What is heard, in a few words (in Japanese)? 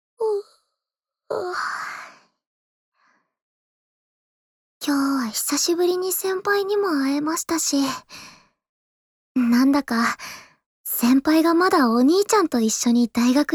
添い寝, ASMR